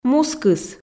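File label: "мускыс", Komi, female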